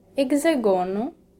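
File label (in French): "hexagone", French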